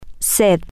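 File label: Spanish, thirsty, female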